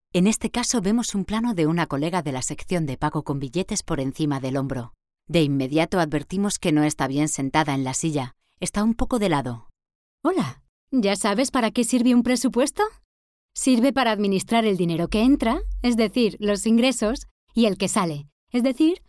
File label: E-learnig